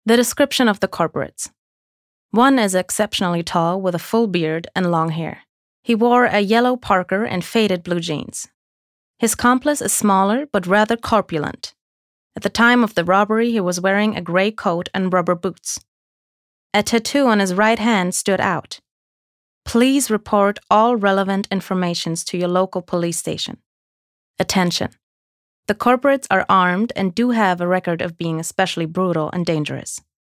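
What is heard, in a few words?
English US Kommentar Neutral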